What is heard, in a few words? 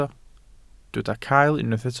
"cael", Welsh